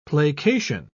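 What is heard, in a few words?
"placation", British English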